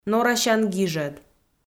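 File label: "норасян гижӧд", Komi-Zyrian, female; audio dict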